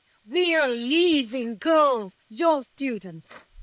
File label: Voice Acting, es, F0